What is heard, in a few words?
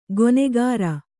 "ಗೊನೆಗಾರ", Kannada